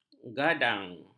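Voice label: "gadang", Malay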